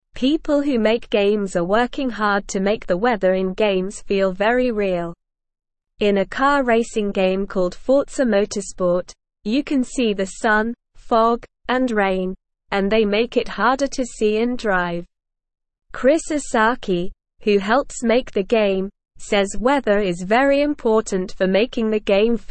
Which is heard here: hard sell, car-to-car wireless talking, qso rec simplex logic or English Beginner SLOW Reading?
English Beginner SLOW Reading